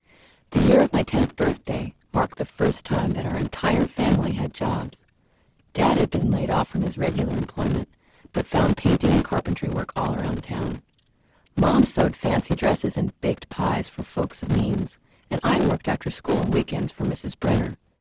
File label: female clean